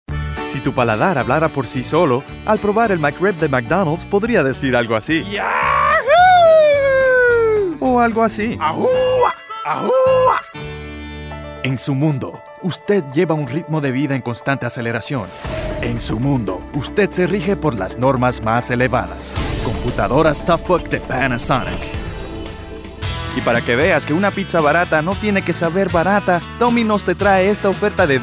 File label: spanish male; dictor